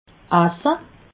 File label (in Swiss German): "asse", alsace